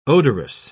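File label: "odorous", English, British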